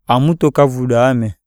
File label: breath, iyasa